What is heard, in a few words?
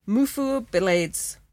"moufu o bleds" [mOO-fuh oh blAYdz]; Word; Food